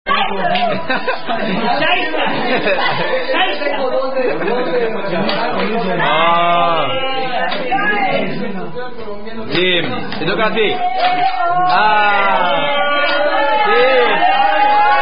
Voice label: German Quarters Drinking Game; Houseparty In Palemro Trinkspiel